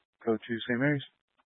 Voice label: Fire Department Dispatch, scanner; Grand Junction